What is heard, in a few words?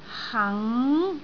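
"hang2", Cantonese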